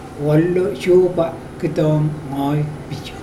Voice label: the man did not give the pig to me, Mokilese

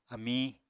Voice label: "amiñ", Apatani

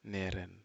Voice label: leaf, Aneityum; Remarkable Plants

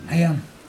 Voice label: "hajaŋ", Chug, cooking pit

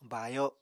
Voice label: "baayo", Apatani

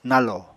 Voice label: "nalò", Apatani

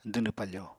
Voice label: "dwnw pafo", Apatani

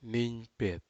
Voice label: "nigpet", Aneityum; bushes

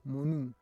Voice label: "monu", Roon

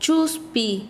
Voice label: "mosca", Quechua Chanka

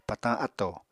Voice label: "patañ áttò", Apatani

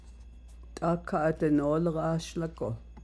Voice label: navajo; Don't oversleep I hope you don't

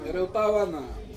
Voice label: "erotawana", Ansus; to know

